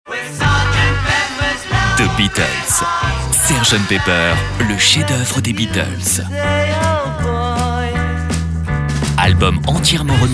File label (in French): vendeur droit, voix off homme